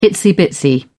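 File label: "itsy-bitsy", British English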